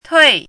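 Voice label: "tui4", Mandarin pinyin syllable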